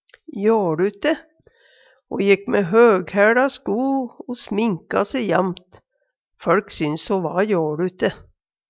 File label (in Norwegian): jåLute, Numedalsmål